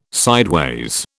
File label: "sideways", British